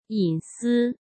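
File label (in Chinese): "隐私", Mandarin Chinese; hsk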